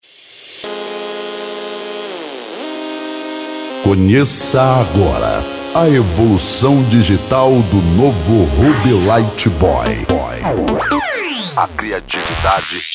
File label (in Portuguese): abertura locutor